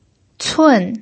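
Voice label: "cun" fourth tone, Mandarin Chinese pinyin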